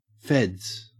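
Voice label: "feds", Australian English; pronunciations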